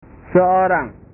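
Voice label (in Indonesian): "seorang", Indonesian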